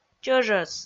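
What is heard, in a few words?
"чӧжӧс", Komi, female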